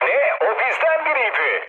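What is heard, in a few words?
Turkish player killed ally, mood low, speech; War Thunder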